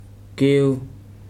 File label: "tooth", Weri